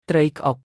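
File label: "ត្រី ក្អុក", Khmer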